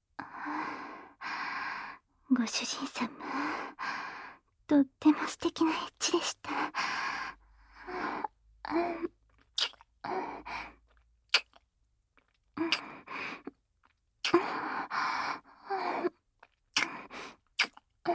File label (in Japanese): ピロートーク, ASMR